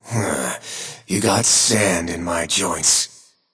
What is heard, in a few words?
Taunt Directed; Smite; Whirlwind Tech Xing Tian; Set